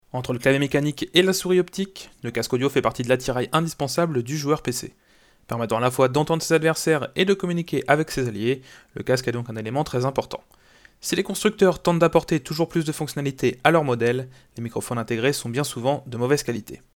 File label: test son modmic wireless omni base